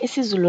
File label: zulu